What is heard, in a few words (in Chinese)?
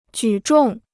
"举重", Chinese